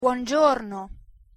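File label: "buongiorno", Italian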